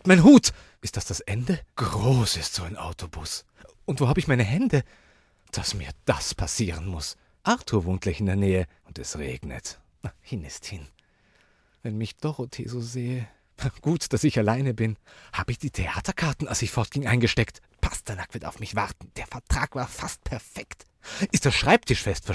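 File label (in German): gedanken, Sprecher, Männer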